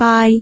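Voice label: "baai3", Cantonese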